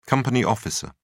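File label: "company officer", British English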